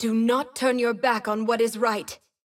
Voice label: vo, en-us; taliyah